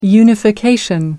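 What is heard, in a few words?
"unification", British English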